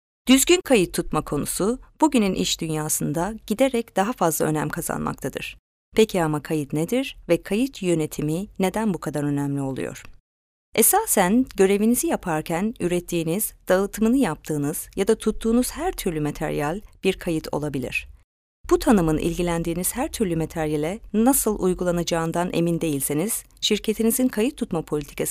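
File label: Turkish FVO, female voice over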